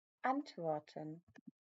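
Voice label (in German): "antworten", German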